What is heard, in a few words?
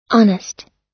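"honest", US English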